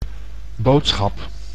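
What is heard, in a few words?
"boodschap", Dutch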